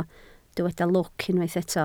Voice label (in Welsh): "lwc", Welsh